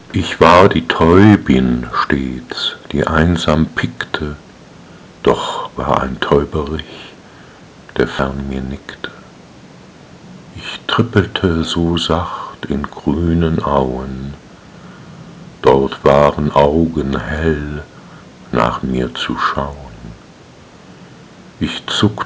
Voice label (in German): Die weibliche Stimme